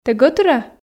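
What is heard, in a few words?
"тэ гӧтыра", Komi, female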